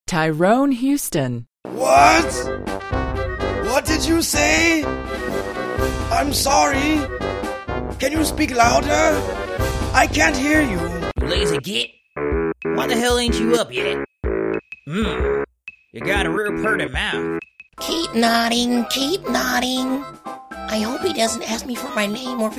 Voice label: Animation